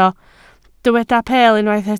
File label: "peel", Welsh